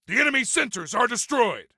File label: Enemy Sensors, Soldier Male; Soldier Male 03